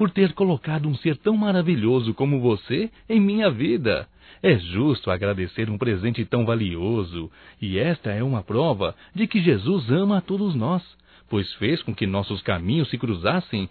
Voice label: Aniversário Irmão Evangélico Masculino; Aniversarios; Telemensagens 2017

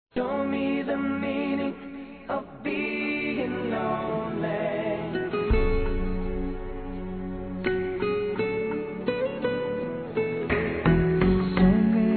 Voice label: NEUTRA, Telemensagens, Páscoa, masc

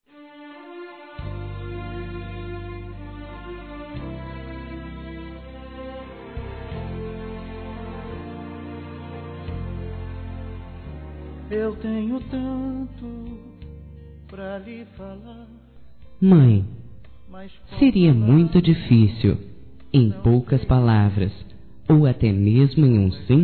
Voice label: MINHA MÃE MEU ANJO F, Telemensagens, Dia das Mães; Telemensagens 2017

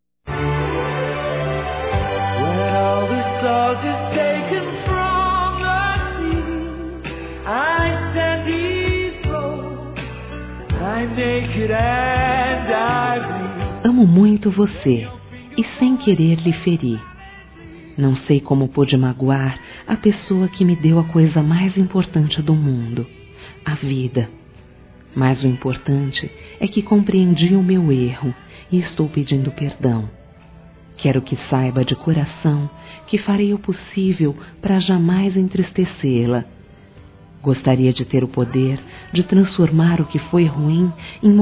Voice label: Desculpas Mãe Femin; Telemensagens; Telemensagens 2017

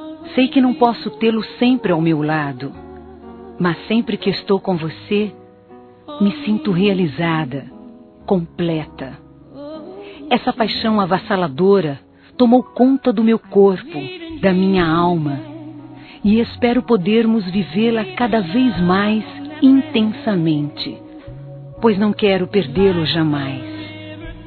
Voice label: Telemensagens Amor Fem; Telemensagens 2017

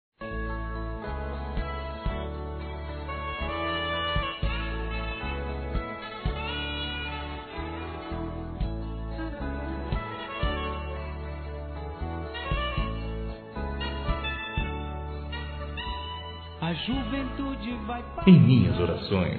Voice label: Otimismo Para Cunhada Masculino, Telemensagens

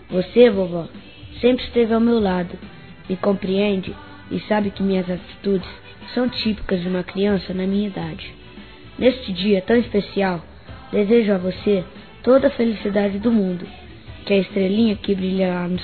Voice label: Dia Das Mães Para Avó Masculino; Telemensagens 2017

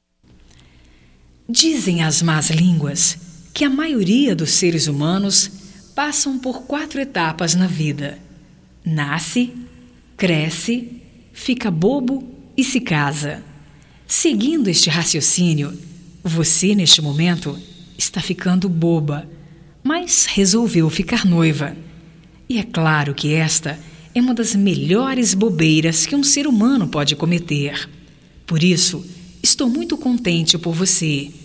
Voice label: PARAB PELO NOIVADO PARA ELA - F, Só Voz; fem; Telemensagens 2017

